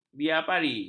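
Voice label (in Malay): "biaperi", Malay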